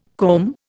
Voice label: "comb", Indian